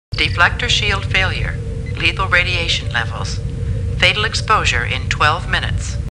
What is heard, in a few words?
Star Trek Computer Voice; StarTrekLCAR